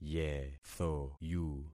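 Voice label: "yethoyu", concatenated syllables; Affixing experiment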